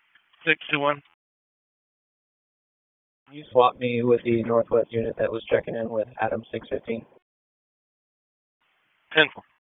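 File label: Police Dispatch, p25; Raleigh Police Southeast District Disp; wake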